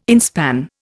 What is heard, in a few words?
"inspan", Indian